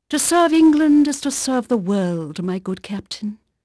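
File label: English female, voice; Pirates Of The Caribbean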